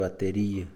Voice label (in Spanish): "batería", Mixe, hombre; Moderno